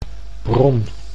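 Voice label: "bron", Dutch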